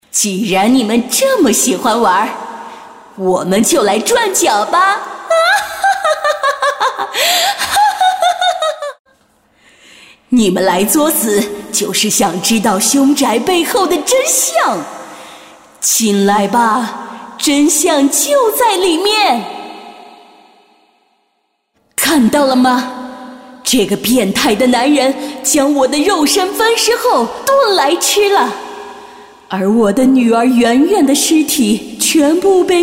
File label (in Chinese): 女 动漫 女鬼